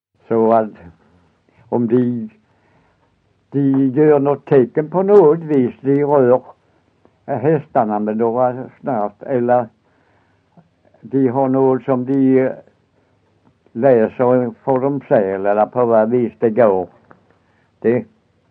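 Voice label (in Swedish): Össjö Skåne, dialekter; Folkminnen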